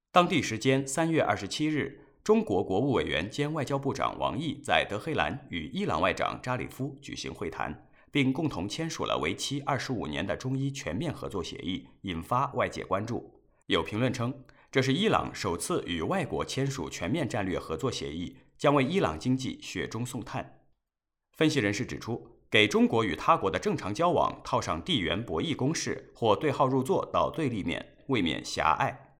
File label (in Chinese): 新闻电台男播